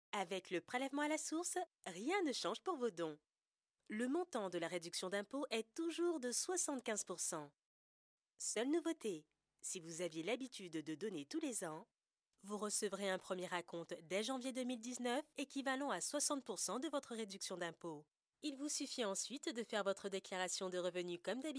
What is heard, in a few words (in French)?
Motion Design, voix off; Le Prélèvement à la source; SECOURS POPULAIRE